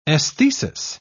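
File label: "esthesis", British English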